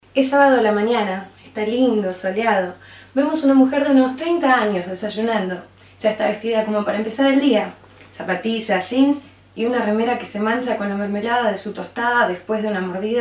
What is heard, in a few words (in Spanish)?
Mujeres; Casting Impulse